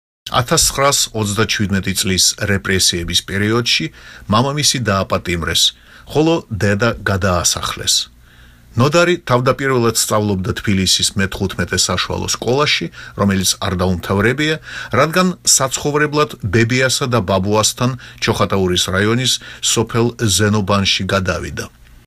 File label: male georgia